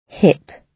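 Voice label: "hip", British English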